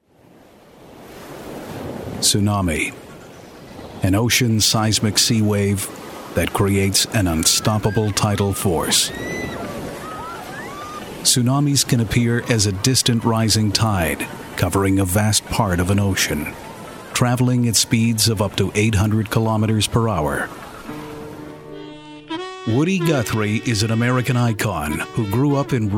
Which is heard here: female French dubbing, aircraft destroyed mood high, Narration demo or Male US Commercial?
Narration demo